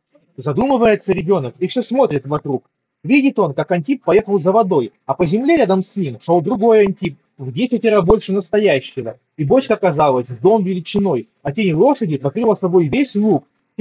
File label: Mic noise; earfun clip 2